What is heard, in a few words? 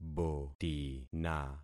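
"botina", concatenated syllables; Affixing experiment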